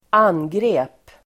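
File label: "angrep", Swedish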